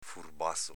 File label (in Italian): "furbazo", dialetto veneto; s.m., agg. furbastro